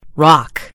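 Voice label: "rock", British English; gcompris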